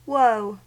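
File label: "woe", American English; pronunciations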